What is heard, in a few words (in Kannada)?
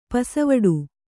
"ಪಸವಡು", Kannada